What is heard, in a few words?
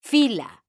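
"fila", Spanish, female; mekanta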